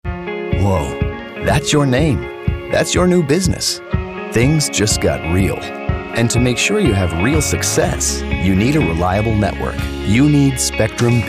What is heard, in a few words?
Commercial